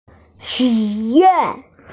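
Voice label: "xu3yuan4", Mandarin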